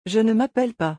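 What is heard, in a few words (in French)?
"je ne m appelle pas", French; conjugaison; le verbe s'appeler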